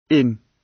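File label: "inn", British English; Time, history; Thời gian, lịch sử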